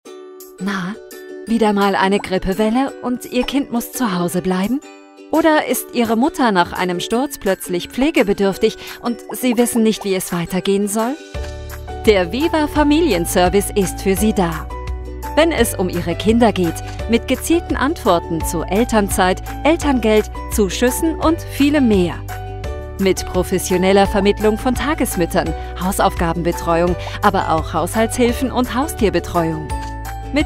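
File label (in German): Erklärfilm zugewandt informativ; vivafamilienservice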